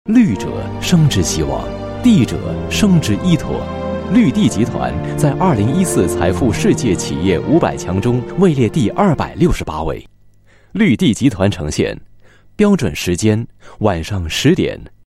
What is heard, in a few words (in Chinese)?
Mandarin; Greenland